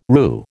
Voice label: "roo", American

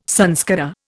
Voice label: "samskara", Indian

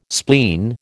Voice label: "spleen", American

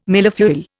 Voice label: "millefeuille", Indian

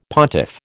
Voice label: "pontiff", American

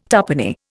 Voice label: "tuppenny", Indian

